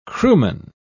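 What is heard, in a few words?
"crewman", British English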